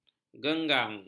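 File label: "genggang", Malay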